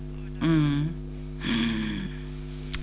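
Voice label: ingressive egressive ingressive mm female; JIPA 2008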